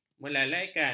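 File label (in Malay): "melalaikan", Malay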